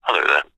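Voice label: human male english says hello there telephone receiver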